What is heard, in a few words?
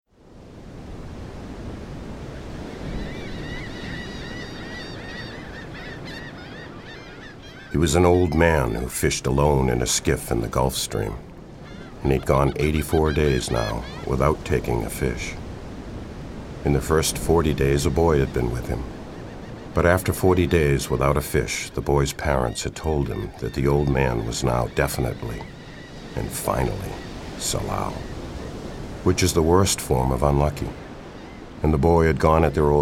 audiobook; oldmansea